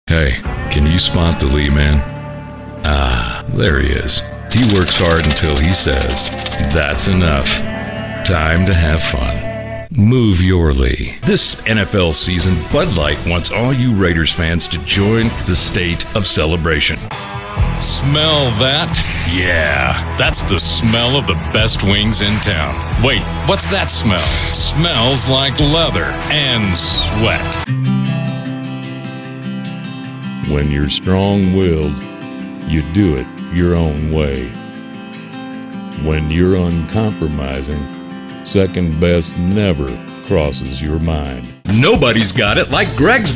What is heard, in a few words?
Commercial